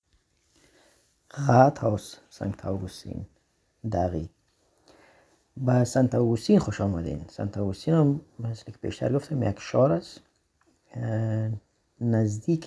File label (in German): Afghanisch; Rathaus Sankt Augustin